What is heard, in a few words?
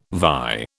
"vie", British